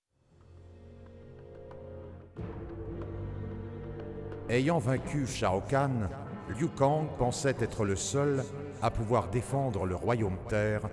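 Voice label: music voice over endings French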